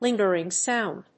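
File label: "lingering sound", English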